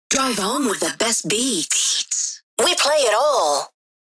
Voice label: Vocals radio voice sweeper drive home

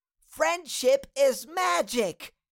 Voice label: Cartoon little child voice; friendship is magic

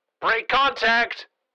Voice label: Military radio voice command; break contact

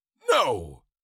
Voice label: Cartoon lion voice "no"

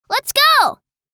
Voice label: "Lets go", girl child kid

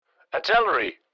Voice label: Military radio voice command "artillery"

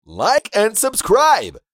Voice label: Like and subscribe social media male; shorts sound effects

